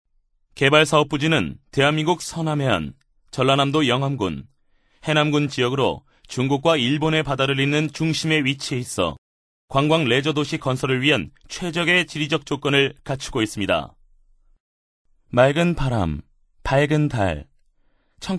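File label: Korean, male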